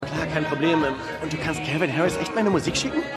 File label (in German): DJ; Stimmproben; Everythings Trash